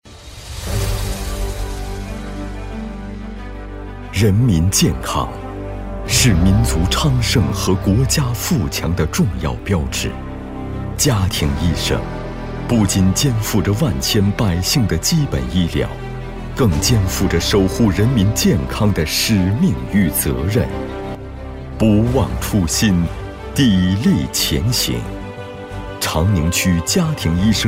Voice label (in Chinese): 男 专题 大气 稳重; 长宁家庭医生